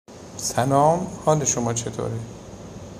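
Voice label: "Salam", Persian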